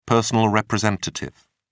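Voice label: "personal representative", British English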